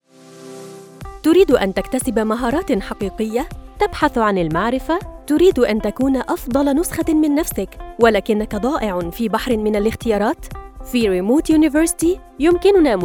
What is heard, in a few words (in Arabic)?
اعلان راديو